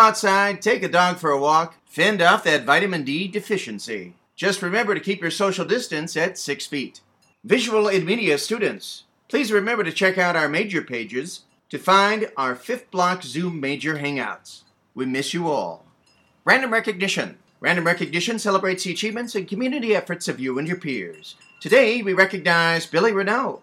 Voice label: Announcements; Wednesday April